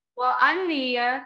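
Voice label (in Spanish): Voice Acting es F, Spanish, female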